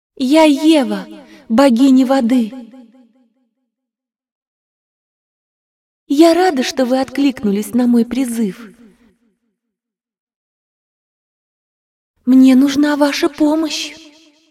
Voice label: cinematic voice, ru; lineage2